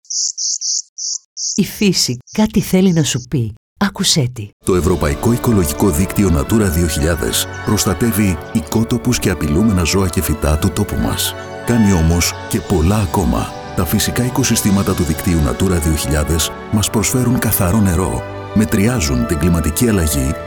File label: Radio Spot; Pandoteira